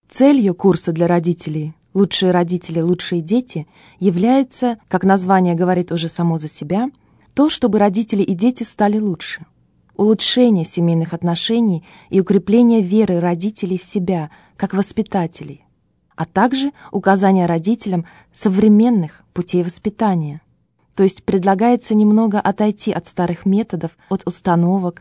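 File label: Russian, female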